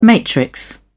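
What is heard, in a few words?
"matrix", British English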